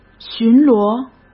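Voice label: "巡逻", Mandarin Chinese; chinese-flashcards; hsk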